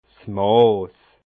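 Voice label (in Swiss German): "maas", Bas Rhin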